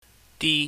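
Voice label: "di", Cantonese, high tone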